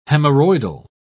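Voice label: "hemorrhoidal", British English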